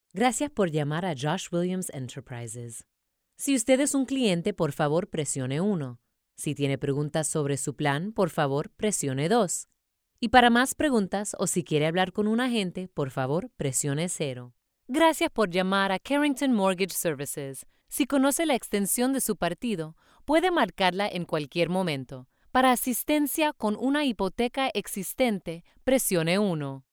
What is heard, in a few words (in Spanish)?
spanish latin american neutral ivr